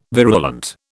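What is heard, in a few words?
"virulent", British English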